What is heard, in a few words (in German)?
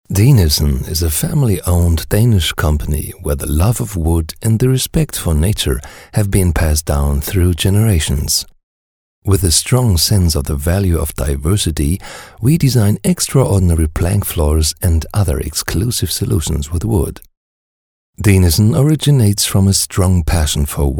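American English Kommentar Sanft; Fremdtake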